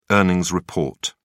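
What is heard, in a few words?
"earnings report", British English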